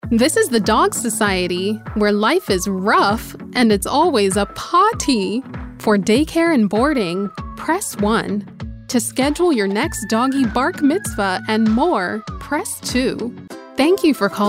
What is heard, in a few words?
IVR